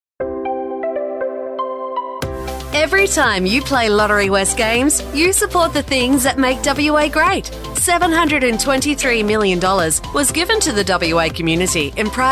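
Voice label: AU, voiceovers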